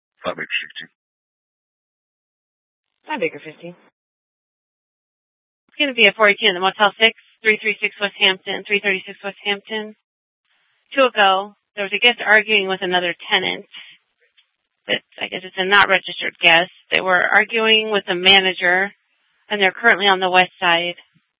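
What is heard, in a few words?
police department Dispatch, scanner; Mesa PD; 1 Fiesta Dispatch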